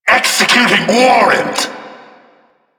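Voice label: vocal investigating projected; Enemies; Vocals; Warframe; Duviri; Dax; Vocal Set B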